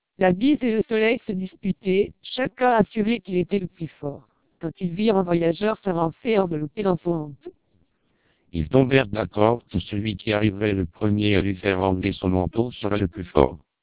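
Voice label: French speech, TWELP 480 bps vocoder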